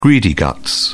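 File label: "greedy guts", British English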